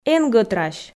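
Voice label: "эн гӧтрась", Komi, female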